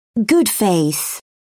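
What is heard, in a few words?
"good faith", British English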